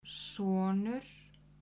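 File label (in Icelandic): "sonur", Icelandic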